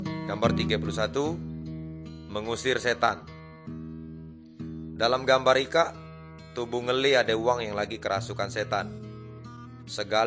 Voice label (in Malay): good news melayu tanjung raja